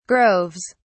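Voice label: "groves", British English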